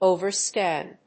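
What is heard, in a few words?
"over scan", English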